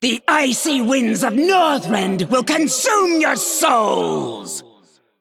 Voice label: Female Dragon Play; ICC; card sounds